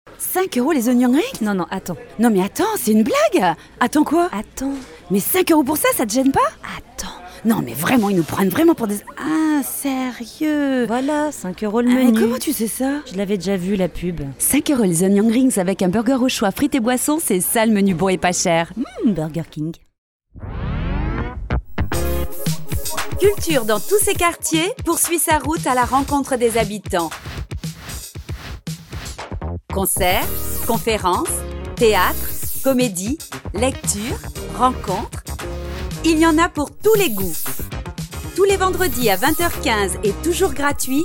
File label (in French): Voix Off